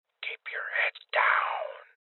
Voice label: Voices Guardian Male Old; rebel cops 2019